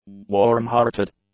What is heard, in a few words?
"warm-hearted", English; adjectives